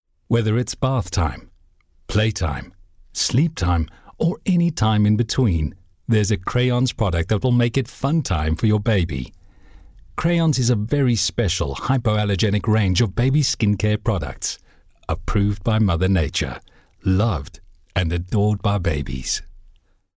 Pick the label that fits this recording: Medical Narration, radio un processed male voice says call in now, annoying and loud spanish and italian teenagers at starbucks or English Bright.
English Bright